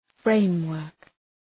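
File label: "framework", English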